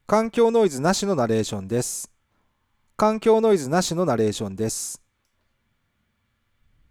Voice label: narration without noise